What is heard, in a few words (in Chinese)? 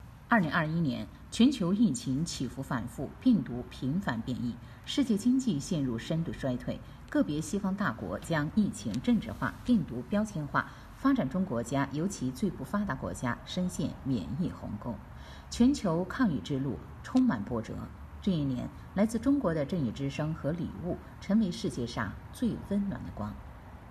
新闻